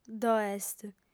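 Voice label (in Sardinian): "ddo'est", female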